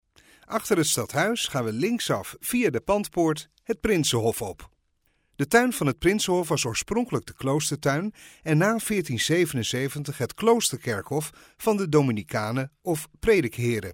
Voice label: Dutch guidetour

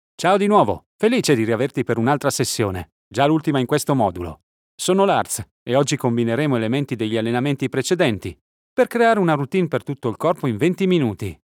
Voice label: ITA Elearning Fitness Fastspeaking

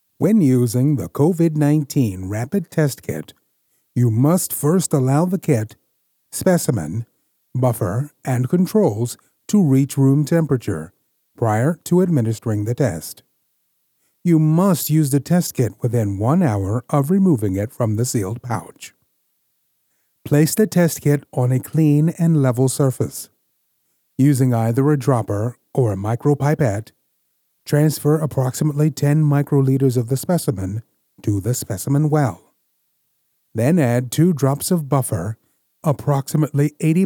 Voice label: Medical Narration

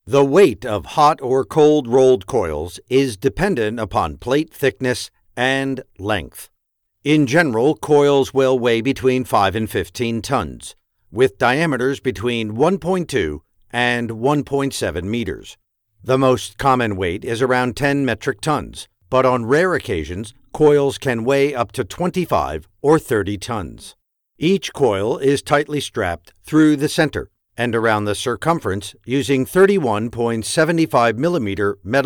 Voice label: e-learning

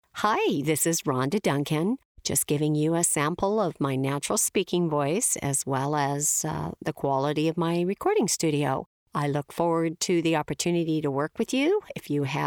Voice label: Natural Speaking Voice; Voicerealm

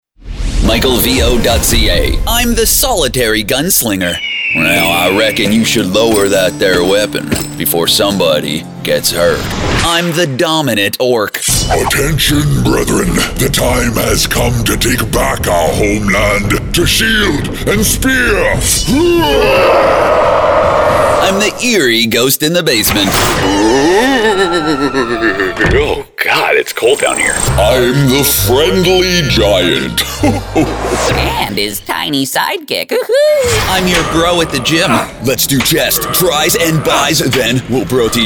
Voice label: Character Voice

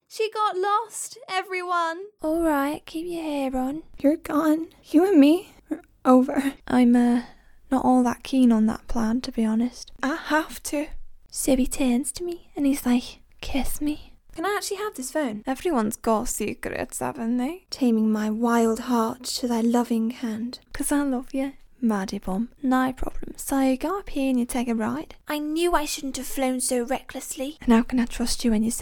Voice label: Character Reel

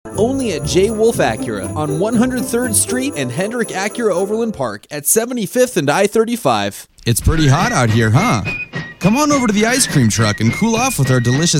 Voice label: commercial work

